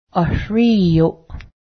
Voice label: "kill", Cayuga; srí:yoh; kill (v.)